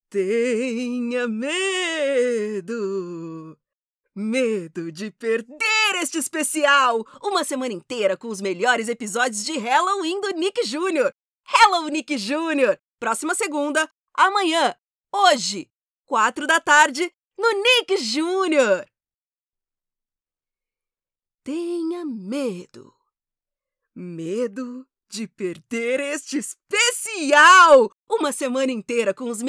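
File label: Brazilian Portuguese, female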